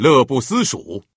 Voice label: indulgence, male; FreeKill; standard cards